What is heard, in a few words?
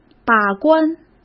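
"把关", Mandarin Chinese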